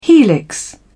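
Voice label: "helix", British English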